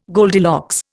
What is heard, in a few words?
"Goldilocks", Indian